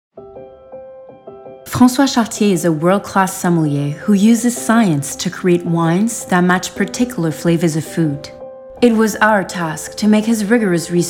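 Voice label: pub english; SAQ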